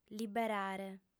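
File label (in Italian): "liberare", lingua sarda, fem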